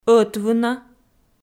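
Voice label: "ӧтвына" Komi, female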